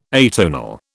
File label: "atonal", British